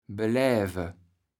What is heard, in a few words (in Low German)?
"beläve", kaevels platt